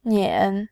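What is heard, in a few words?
"nian", Mandarin, tone 3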